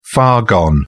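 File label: "far gone", British English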